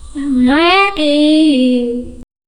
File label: girls saying i love you